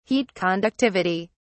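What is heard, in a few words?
"heat conductivity", British English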